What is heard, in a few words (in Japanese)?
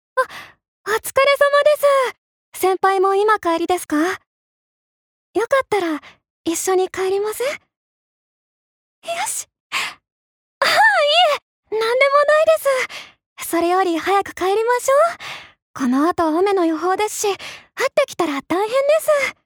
アニメ